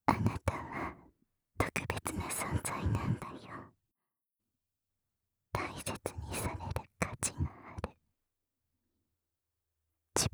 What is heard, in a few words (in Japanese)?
全肯定 ささやき ボイス